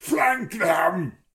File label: soldier - battle sounds - flank them; Menador; SyrinScape; Iris hill manor